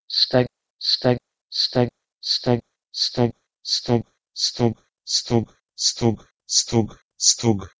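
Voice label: Proto-Indo-European steg to Polish stog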